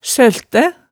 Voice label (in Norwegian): "søltæ", hemsedalsmaalet, tale